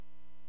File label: Aviation 121.5 Emergency